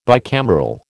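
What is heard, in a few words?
"bicameral", American English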